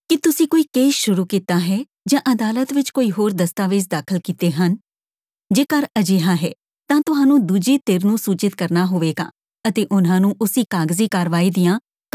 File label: Serving Legal Papers PSA Punjabi